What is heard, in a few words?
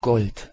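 "golt", Proto-Indo-European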